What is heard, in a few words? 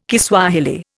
"Kiswahili", Indian